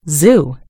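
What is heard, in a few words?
"zoo", British English; gcompris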